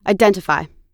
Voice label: "identify", us